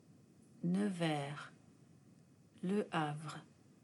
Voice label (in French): prononciation e nevers; fr; oral